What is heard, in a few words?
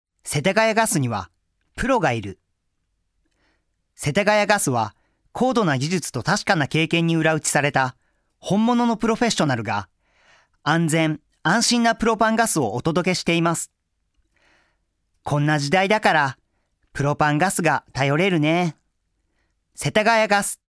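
Narration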